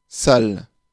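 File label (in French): "sale", French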